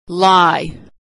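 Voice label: "lie", American English; vowel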